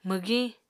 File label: "mwgiñ", Apatani